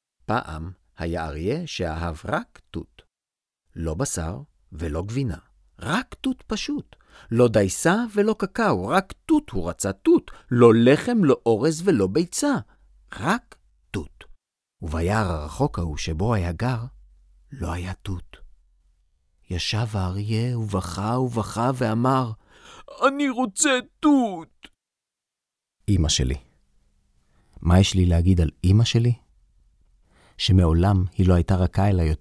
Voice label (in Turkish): İbranice Erkek Ses; seslendirme